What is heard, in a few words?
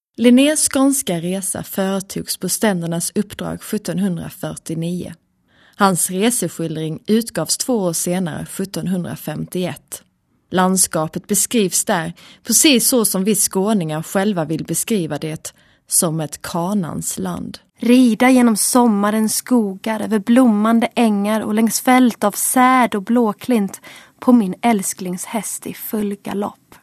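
Swedish; Scandinavian, Swedish, Male, Studio, 30s-40s. Based in Sweden.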